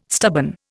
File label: "stubborn", Indian